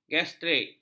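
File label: "gastrik", Malay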